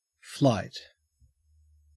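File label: "flight", Australian English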